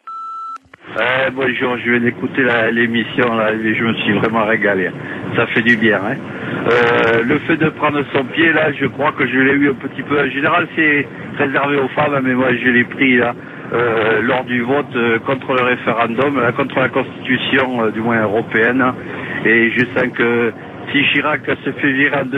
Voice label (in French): repondeur; Orgasme feminin